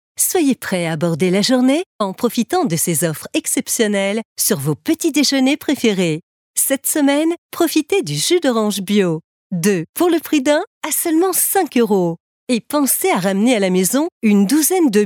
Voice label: Casual Decontrate